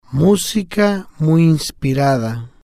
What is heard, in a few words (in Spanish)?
"musica Muy Inspirada", Spanish